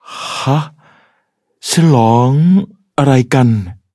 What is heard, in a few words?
levelup, th; cookie run